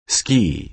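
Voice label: "ski", American English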